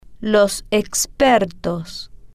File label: "los Expertos", spanish